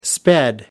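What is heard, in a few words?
"sped", English, British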